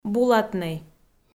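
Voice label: "булатнӧй", Komi, female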